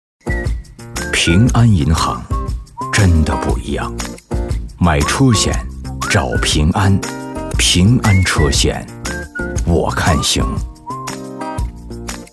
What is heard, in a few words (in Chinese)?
男 角色模仿; 葛优-平安